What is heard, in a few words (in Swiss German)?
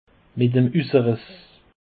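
"usseriess", Bas Rhin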